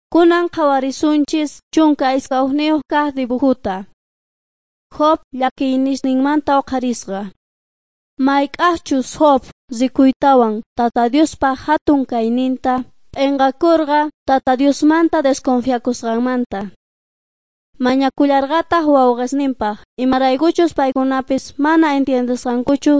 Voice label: beginning with god quechua s bolivian cochabamba

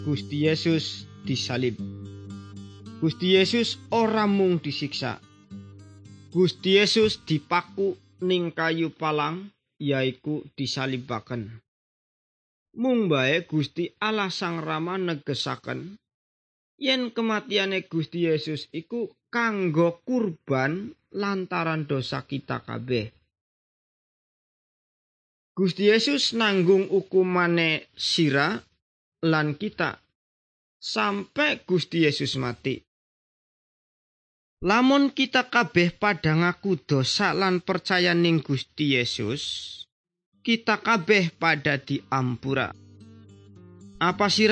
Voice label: good news jawa indramayu, javanese bible

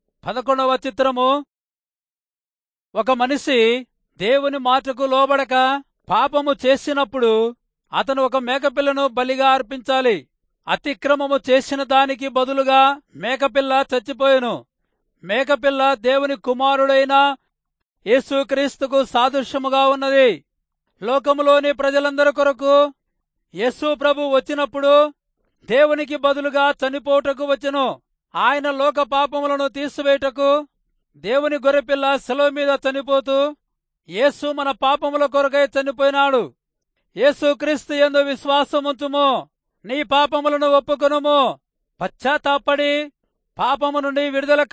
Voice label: telugu rayalseema; good news

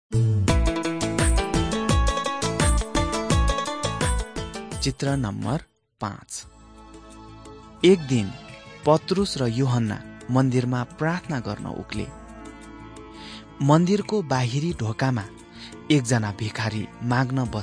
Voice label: acts of the holy spirit nepali

